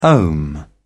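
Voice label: "ohm", British English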